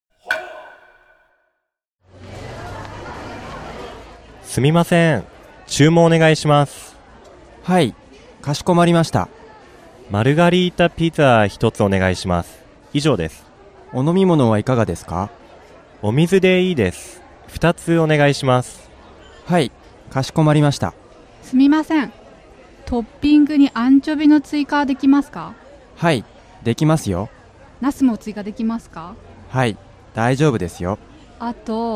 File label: dialog; JapanesePod101; Beginner Season 1